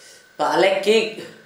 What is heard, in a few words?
earthquake, Gta, Dialect: Hill; paʔleʔ-kig